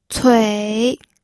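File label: "cui", Mandarin Chinese, third tone; pinyin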